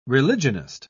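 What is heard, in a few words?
"religionist", British English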